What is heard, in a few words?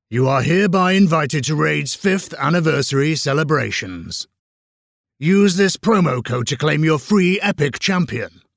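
voiceover character knight invitation, British character voice over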